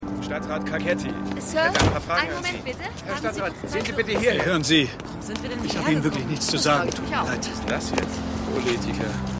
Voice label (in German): ReporterInnen; The Wire